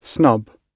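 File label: "snub", British English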